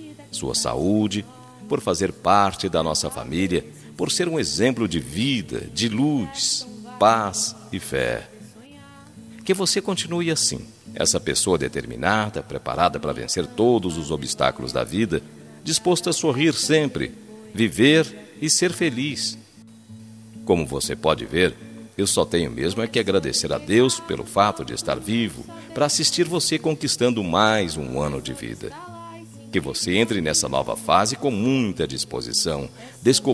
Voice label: ANIV NETO MASC, telemensagem

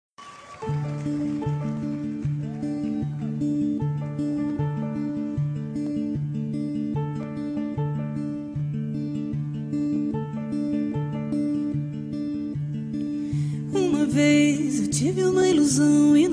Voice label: Aniversario Casamento Masculino

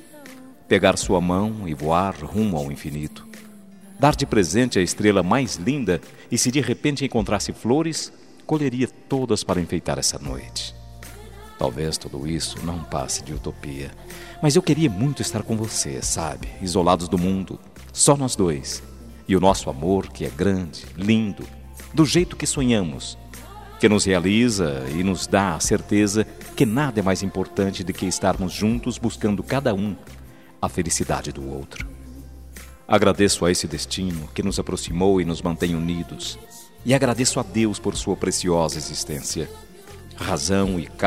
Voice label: ANIV NAMORADA, telemensagem

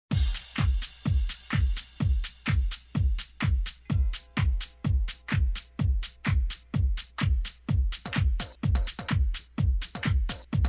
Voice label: OTIMISMO FUNCIONARIO, telemensagem

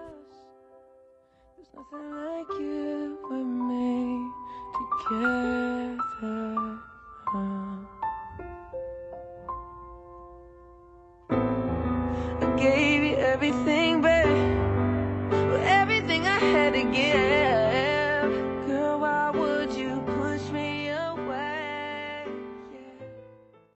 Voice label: Aniversario Atrasado Amigo Distante Masculino

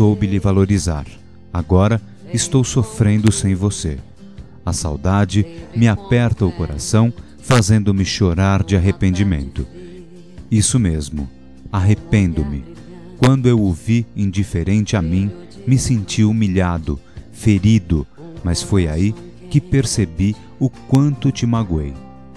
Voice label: Desculpas Masculino, telemensagem

